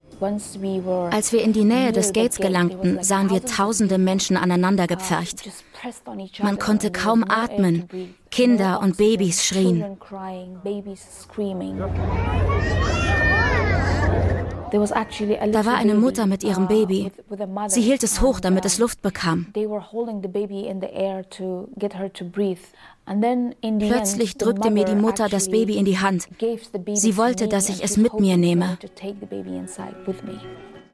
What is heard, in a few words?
Voice Over; Zervakis&Opdenhövl